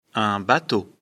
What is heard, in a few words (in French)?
"bateau", lecture fr